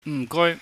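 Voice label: "thanks", Cantonese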